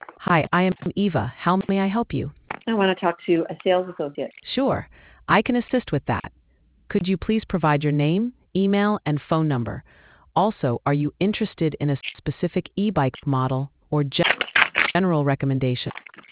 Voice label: conversation